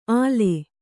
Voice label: "ಅಲೆ", Kannada

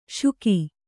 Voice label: "ಶುಕಿ", Kannada

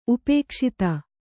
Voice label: "ಉಪೇಕ್ಷಿತ", Kannada

